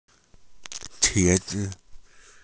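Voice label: "qie2 zi5", Mandarin Chinese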